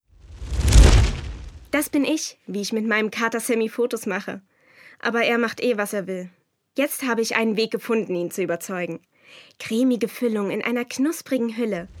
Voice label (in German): werbespot, sprecher deutsch frauen